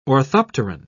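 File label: "orthopteran", British English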